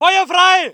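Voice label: voice german infantry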